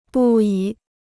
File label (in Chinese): "不已", Chinese